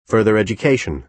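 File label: "further education", American English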